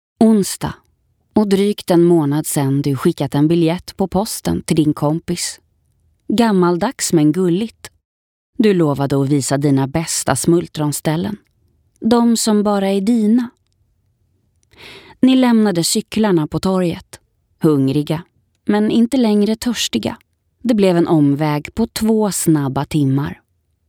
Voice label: swedish narration